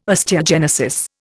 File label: "osteogenesis", Indian